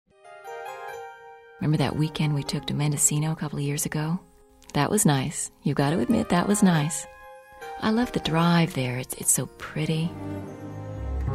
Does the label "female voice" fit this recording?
Yes